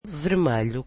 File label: portuguese red